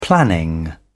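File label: "planning", British English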